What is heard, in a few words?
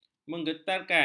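"menggentarkan", Malay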